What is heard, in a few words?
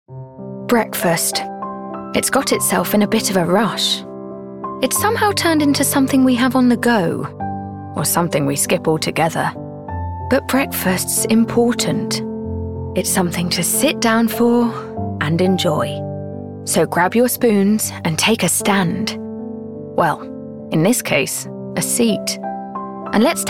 dorset